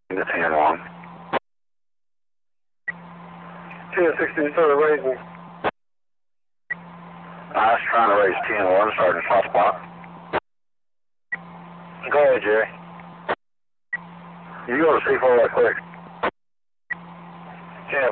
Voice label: Police Radio Southern